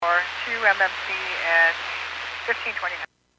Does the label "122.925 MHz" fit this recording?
No